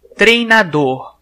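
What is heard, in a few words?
"coach", Brazilian Portuguese; gcompris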